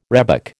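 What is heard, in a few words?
"rhebok", American English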